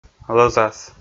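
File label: "лӧзас", Komi, male; kpv audio dict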